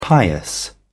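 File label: "pious", British English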